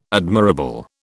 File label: "admirable", British